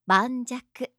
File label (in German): "banjaku", japanische maedchennamen